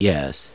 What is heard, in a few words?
"yes", scientist